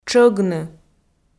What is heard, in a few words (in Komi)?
"тшӧгны", Komi